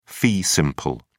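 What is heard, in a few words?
"fee simple", British English, dictionary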